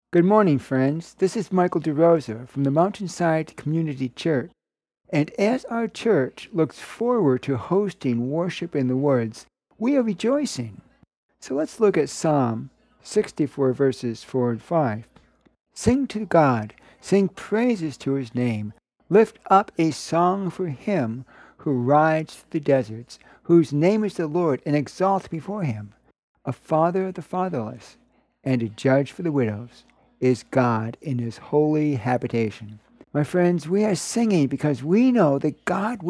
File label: Radio Sermonette; Psalm; Sing to God